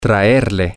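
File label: to Bring Her, spanish, male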